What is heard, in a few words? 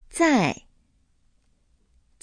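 "zai", Chinese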